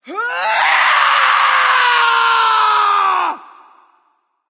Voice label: battlecry; smite; artio

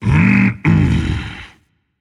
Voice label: disagree rage; chaac

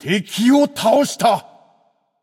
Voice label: notify slain you enemy, japanese; caster

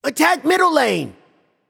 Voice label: vox attack; smite; raijin

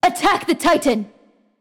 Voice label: vox attack m; smite; nuwa; voicepack

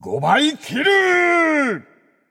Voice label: alert notify kill, japanese; caster

